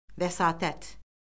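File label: "vəsatət", Azerbaijani; Orfoqrafiya Lüğəti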